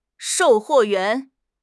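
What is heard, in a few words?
"shou4 huo4 yuan2", Mandarin Chinese; HSK4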